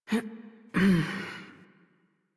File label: Horse Mount Adult; Warframe; Duviri